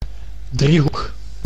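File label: "driehoek", Dutch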